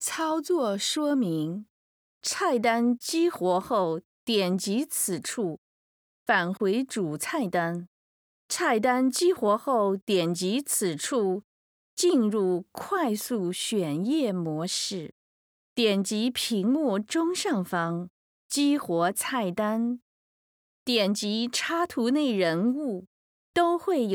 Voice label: Chinese Mandarin E-learning

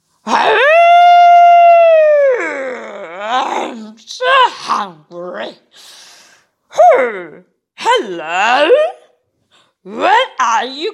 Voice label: Characters EN; GoLocalise

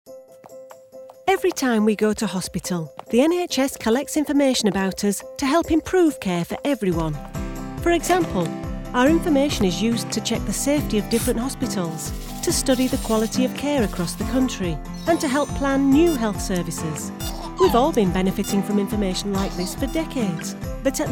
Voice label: English UK Yorkshire Commercial

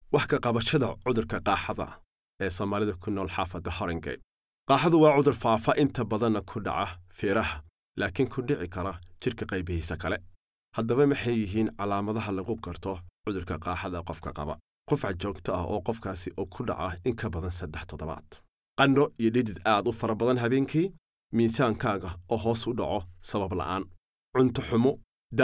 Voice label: Somali Elearning

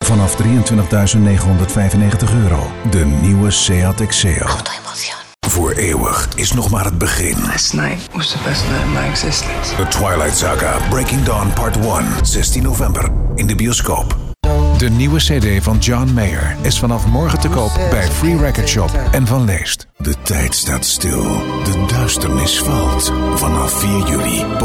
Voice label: Dutch Commercial

